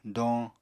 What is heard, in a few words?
"doñ", Apatani